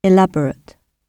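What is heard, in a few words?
"elaborate", British English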